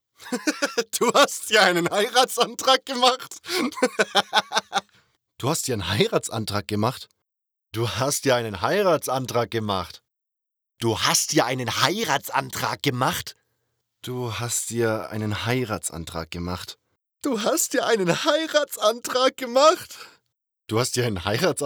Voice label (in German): 9 Emotionen